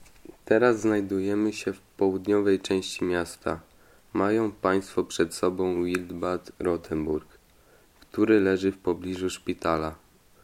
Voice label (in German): polnisch; Wildbad